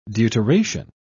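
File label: "deuteration", British English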